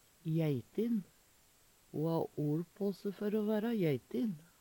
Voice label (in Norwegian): jeitin, Numedalsmål; Adjektiv